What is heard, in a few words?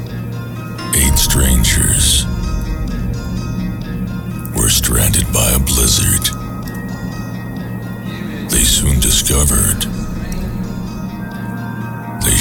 Trailer, english american; General public